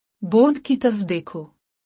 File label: Look at the board. Urdu; Time and Routine